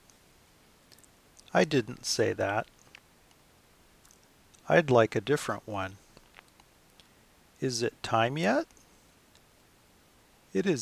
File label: vowel i phrases